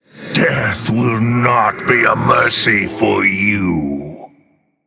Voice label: Male Undead Play

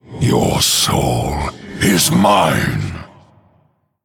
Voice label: Male Human Attack

